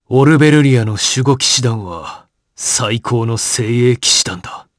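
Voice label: voice dia, voices heroes, Japanese; Roman; kingsraid audio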